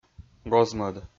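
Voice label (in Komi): "гоз-мӧд", Komi-Zyrian, male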